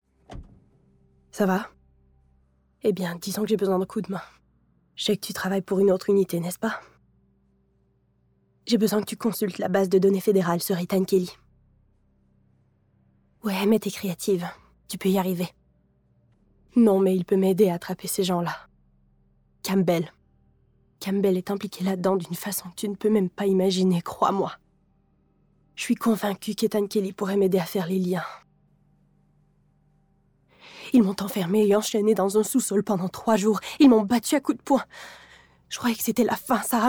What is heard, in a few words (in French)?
Doublage; The Rogue